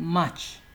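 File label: "match", Nigerian Pidgin, male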